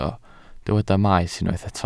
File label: "maes", Welsh